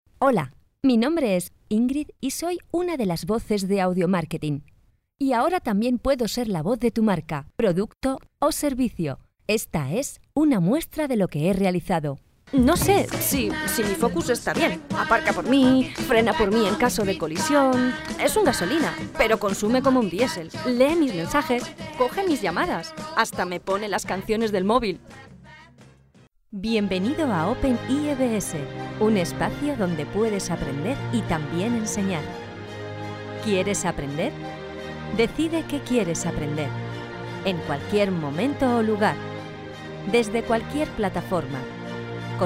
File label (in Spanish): Locutora espanola